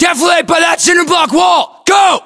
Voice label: US order cover wall cinderblock, battlechatter, english; localized english